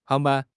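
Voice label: "hang2 ban1", Mandarin Chinese; HSK; HSK4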